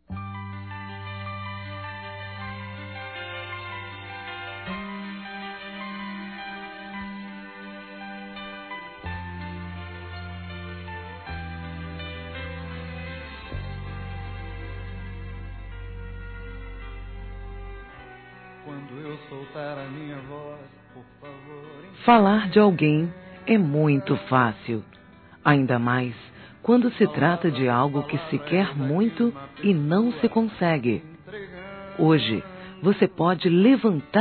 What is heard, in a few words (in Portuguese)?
QUEM DEIXOU O VICIO F; Telemensagens; Parabenização; fem